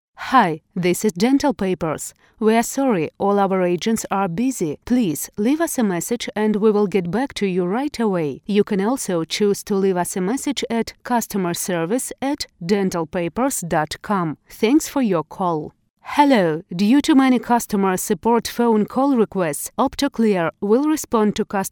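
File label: Russian Russia female English; russian voice over talent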